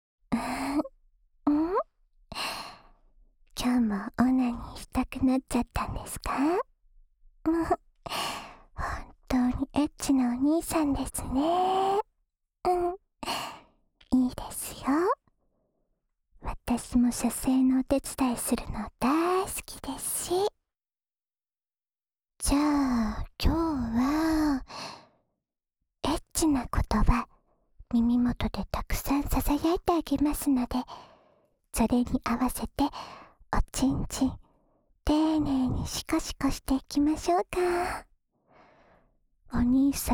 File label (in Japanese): オナニー 応援 おま○こ 囁き ボイス 左耳; 耳かきリフレ『春乃撫子』